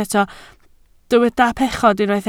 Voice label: "pechod", Welsh